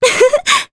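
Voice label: Happy, Japanese; heroes; Veronica; kingsraid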